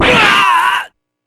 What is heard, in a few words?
shock